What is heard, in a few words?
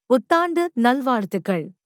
Tamil Happy New Year; Greetings